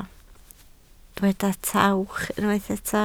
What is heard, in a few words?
"tawch", Welsh